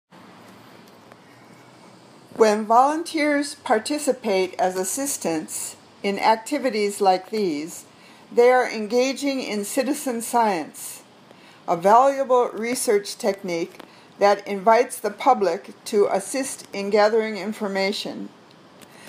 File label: Reading Drill